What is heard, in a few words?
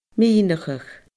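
"mineachadh", Scottish Gaelic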